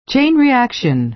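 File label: "chain reaction", American English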